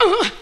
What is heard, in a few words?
"uh" female